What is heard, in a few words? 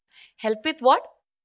Voice Acting es F